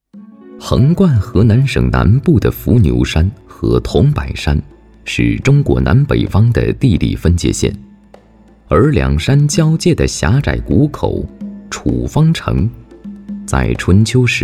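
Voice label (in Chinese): 男国大音-非遗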